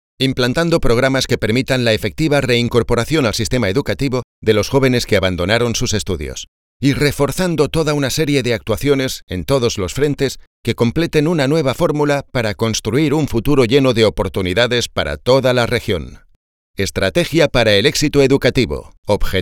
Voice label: spanish spain male corporate

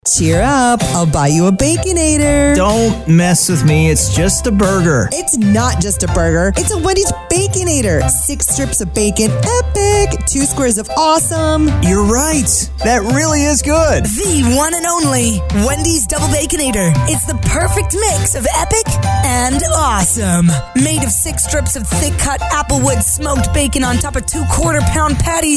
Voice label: english us and canada female generic

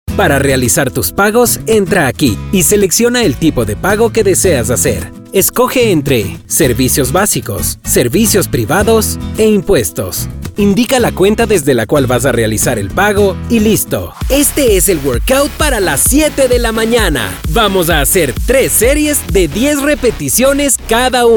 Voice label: spanish latin male e learning

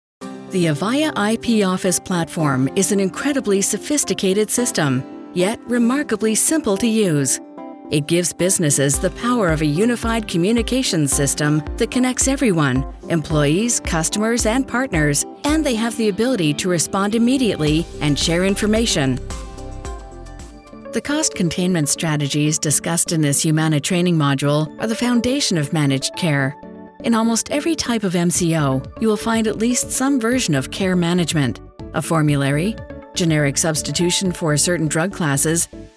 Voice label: english us and canada female e learning

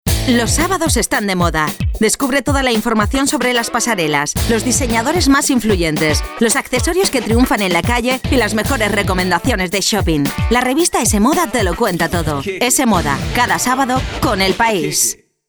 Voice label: spanish spain female commercial

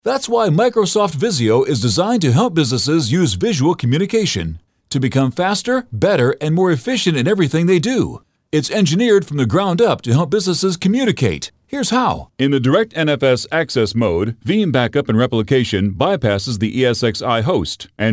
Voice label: english us and canada male corporate

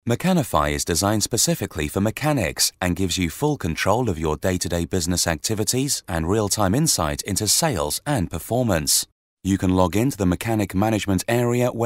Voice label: english uk male e learning

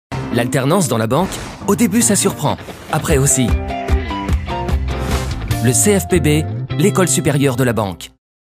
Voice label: french european male commercial

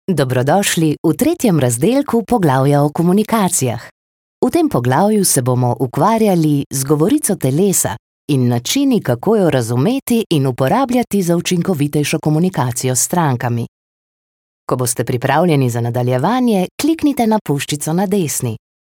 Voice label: slovenian female e learning

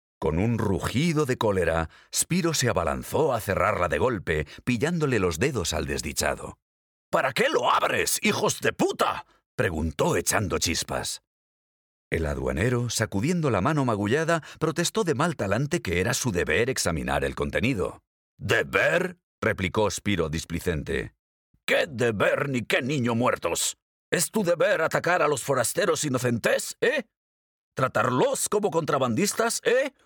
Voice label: spanish spain male narrative

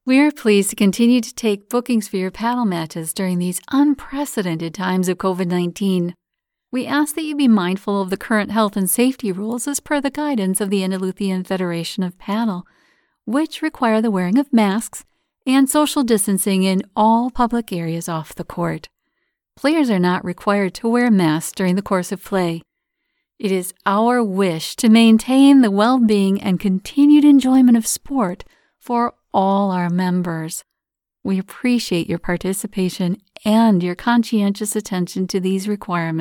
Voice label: english us and canada female

